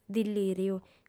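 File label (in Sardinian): "dillìriu", female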